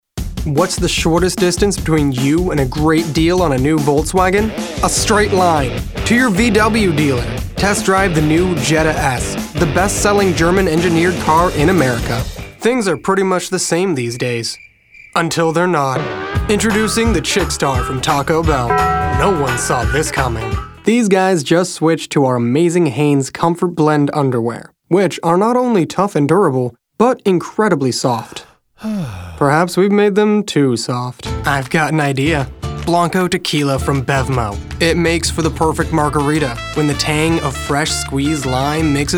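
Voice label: commercial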